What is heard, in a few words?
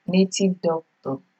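"native doctor", Nigerian Pidgin, female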